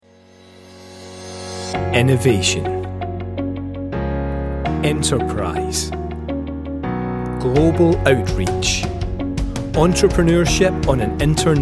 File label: Corporate Ad Sample; Scottish Business Network